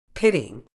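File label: "pitting", medical English, British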